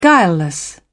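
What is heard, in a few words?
"guileless", British English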